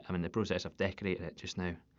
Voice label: scottish